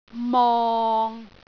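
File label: "mong6", Cantonese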